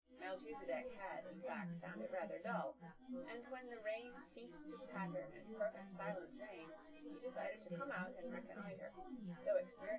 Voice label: female synthconv

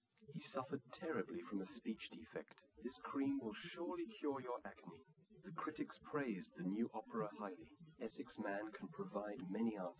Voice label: male live rec; SiSEC08; SiSEC underdetermined

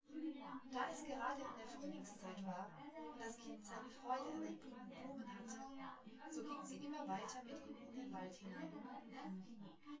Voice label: female synthconv

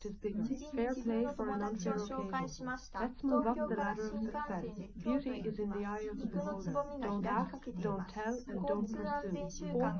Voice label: female liverec 250ms 5cm

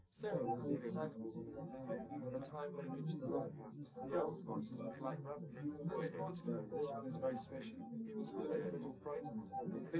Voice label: male, live rec, 250ms, 5cm

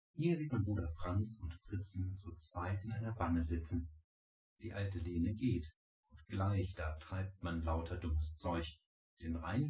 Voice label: male synthconv; SiSEC08; SiSEC underdetermined

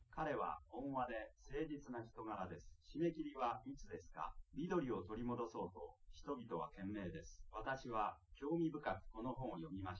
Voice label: test male live rec, underdetermined; SiSEC10

